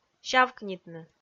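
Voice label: "сявкнитны" Komi, female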